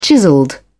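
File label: "chiselled", English, British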